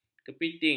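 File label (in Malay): "kepiting", Malay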